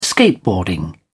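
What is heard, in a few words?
"skateboarding", British English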